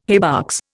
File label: "haybox", Indian